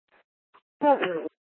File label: Court Security Dispatch, scanner